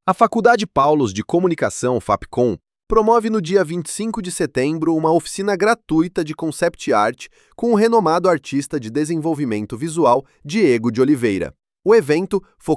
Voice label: voiceover; voiceover generator; freepik